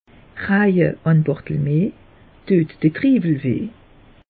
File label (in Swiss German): "barthelmee", alsace; meteo